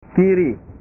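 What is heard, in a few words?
"kiri", Indonesian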